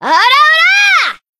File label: Battle Shout; Neru